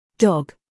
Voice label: "dog" uk female; everyone-can-use-english

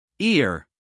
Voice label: "ear" en us male; everyone-can-use-english

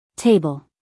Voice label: "table", us female; everyone-can-use-english

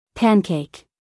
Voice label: "pancake", US female

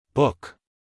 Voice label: "book" us male; everyone-can-use-english

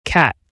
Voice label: "cat" us male

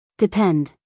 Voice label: "depend", us female; everyone-can-use-english

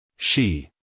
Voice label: "she", us male

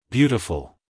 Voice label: "beautiful" US English, male; everyone-can-use-english

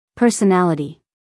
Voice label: "personality" us female; everyone-can-use-english

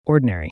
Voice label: "ordinary" us male; everyone-can-use-english

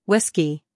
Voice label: "Whiskey" us

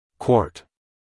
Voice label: "quart", US male

